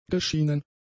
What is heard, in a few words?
"geschienen", German; adjectives